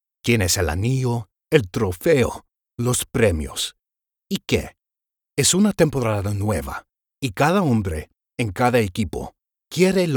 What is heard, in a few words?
Spanish